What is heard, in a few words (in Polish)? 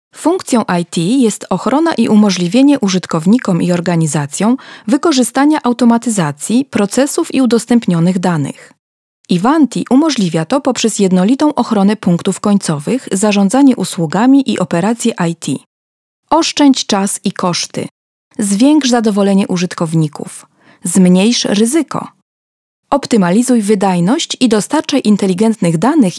Lektorka; Studio Nonagram